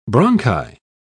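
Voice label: "bronchi", British English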